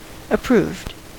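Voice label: "approved", US English; pronunciations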